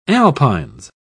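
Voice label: "alpines", British English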